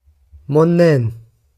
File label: Come on then, glaswegian